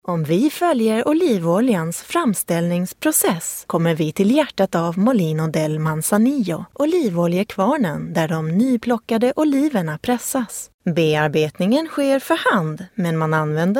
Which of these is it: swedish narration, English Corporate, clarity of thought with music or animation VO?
swedish narration